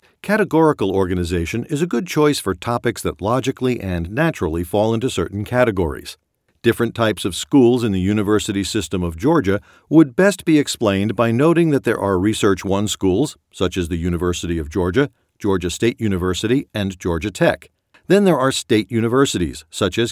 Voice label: Informative Speech